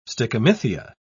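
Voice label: "stichomythia", British English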